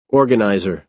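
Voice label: "organiser" American English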